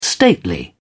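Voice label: "stately", British English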